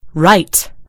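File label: "right", British English; gcompris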